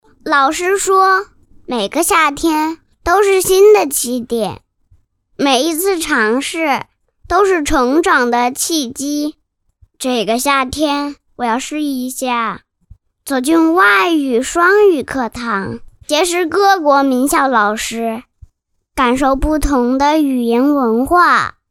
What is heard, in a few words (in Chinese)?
男 男真童; 老师说; 真童配音